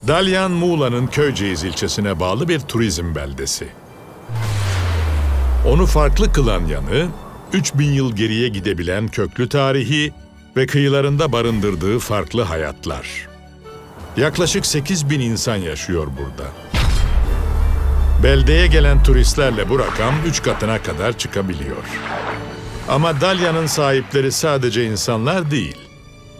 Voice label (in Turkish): belgesel